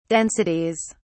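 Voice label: "densities", British English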